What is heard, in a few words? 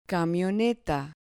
"camioneta", Spanish